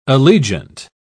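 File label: "allegiant", English, British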